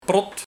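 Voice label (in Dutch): "Prot", dialect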